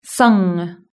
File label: "sung", British English